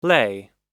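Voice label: "lay", American English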